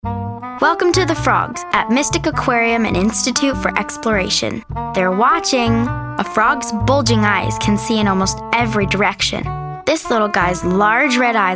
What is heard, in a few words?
Voice Talent Narration